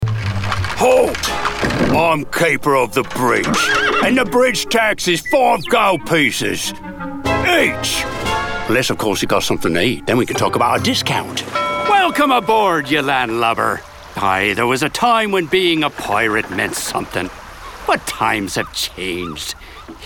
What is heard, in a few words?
animation